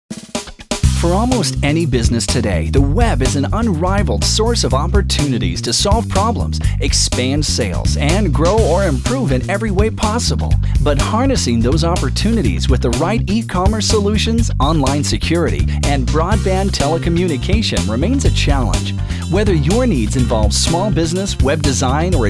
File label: male VO